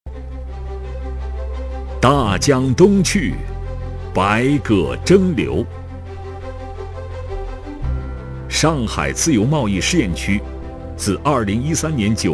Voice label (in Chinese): 男 专题 大气浑厚; 上海自贸区